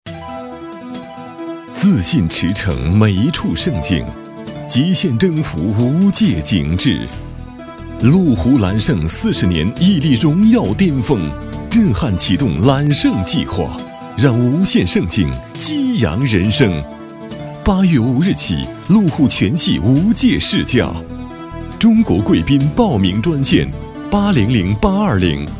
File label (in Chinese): 广告 汽车 浑厚, 男国; 路虎样音